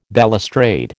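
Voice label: "balustrade", American English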